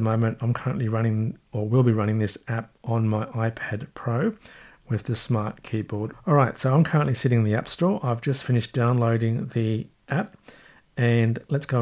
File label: Braille Tutor demo using VoiceOver